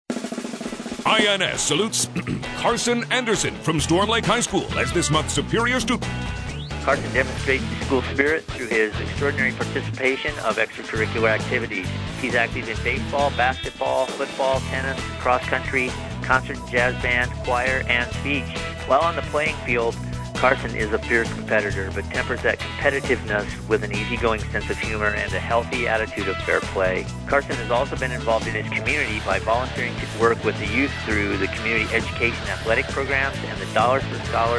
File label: commercial; INS Superior Student 2010